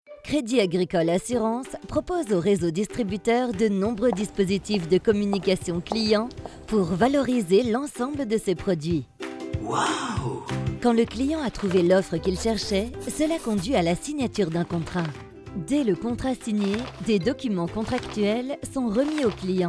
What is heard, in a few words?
CORPORATE; DEMO